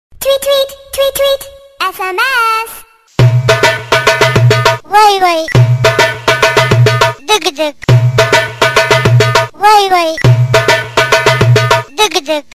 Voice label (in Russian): golos rebenka sms vai-vai; detskie sms